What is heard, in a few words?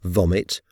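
"vomit", English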